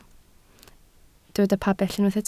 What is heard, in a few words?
"pabell", Welsh